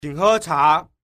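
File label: qing he cha, Chinese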